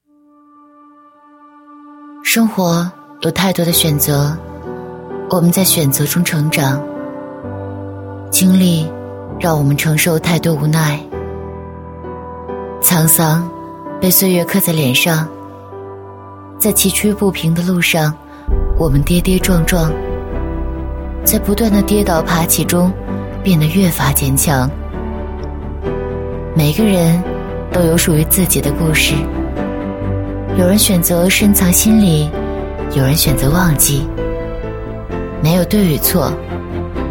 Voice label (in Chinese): 女 磁性女配 独白; 国语女声配音; 经历